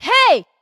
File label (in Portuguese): Chamamento intenso